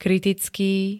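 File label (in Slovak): "kritický", Slovak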